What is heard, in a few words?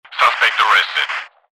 police male